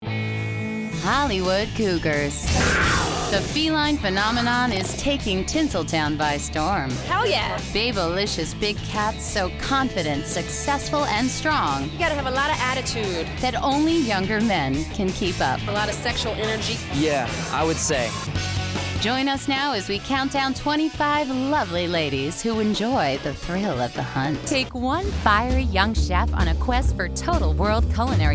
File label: Promo VO, female voices